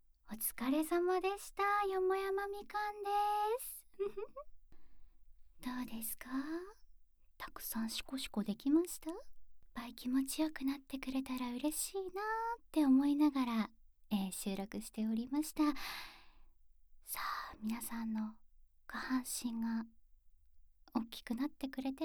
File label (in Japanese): フリートーク